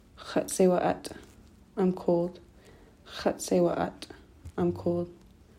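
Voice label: im cold, Tlingit; cold talk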